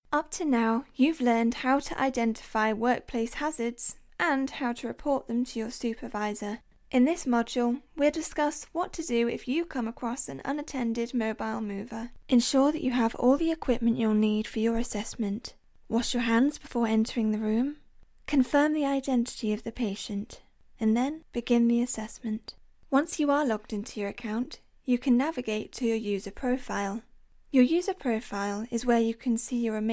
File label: Explainer Vids